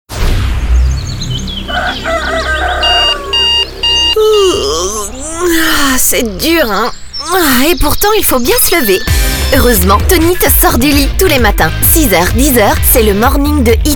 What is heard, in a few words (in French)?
promo morning, spot publicitaire pour la radio; hitfm